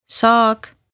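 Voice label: "saag", Estonian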